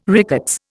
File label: "rickets", Indian